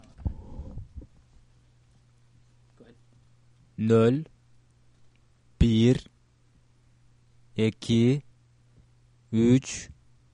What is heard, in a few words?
numbers 1-10, kyrgyz